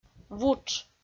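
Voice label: "вутш", Komi, female; audio dict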